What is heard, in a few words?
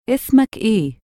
"ismak eeh", Egyptian Arabic